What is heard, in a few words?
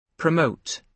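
"promote", British English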